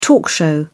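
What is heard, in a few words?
"talk show", British English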